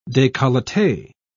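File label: "decollete", British English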